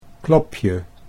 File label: "klopje", Dutch